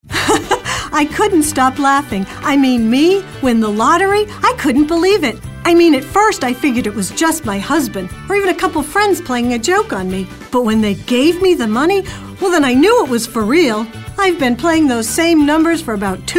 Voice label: perky

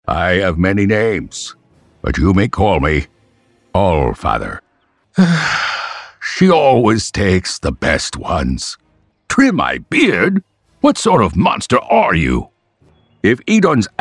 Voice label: Animation

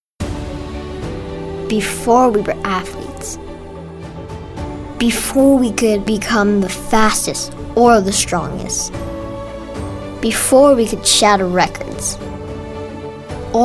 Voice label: motivational

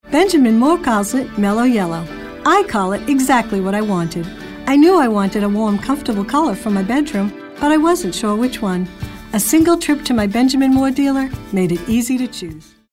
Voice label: friendly

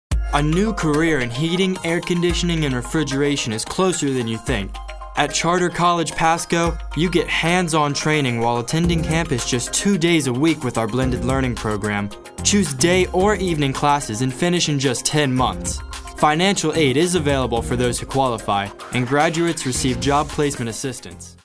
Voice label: informative

